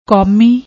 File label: "komi", Punjabi; ਕੌਮੀ